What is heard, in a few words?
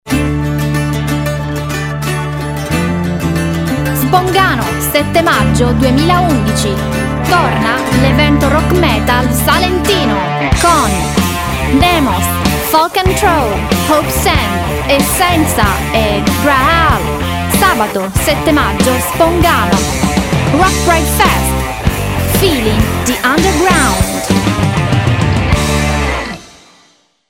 spot; rock Pride